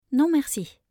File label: "non merci", French; thank you